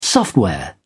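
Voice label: "software", British English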